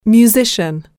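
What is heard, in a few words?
"musician", English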